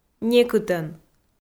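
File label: "некытӧн", Komi, female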